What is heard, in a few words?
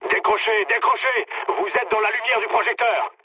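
searchlite, mood low, French; War Thunder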